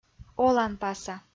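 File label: "оланпаса" Komi-Zyrian, female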